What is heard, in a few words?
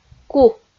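"ку" Komi, female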